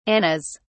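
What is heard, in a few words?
"annas", British English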